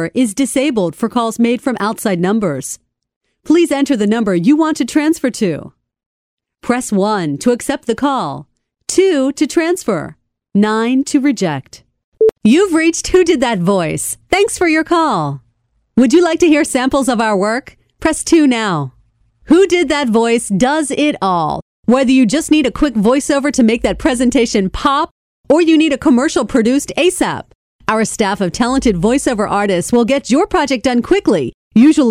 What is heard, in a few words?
Voicemail And On Hold